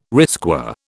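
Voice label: "risqué", British English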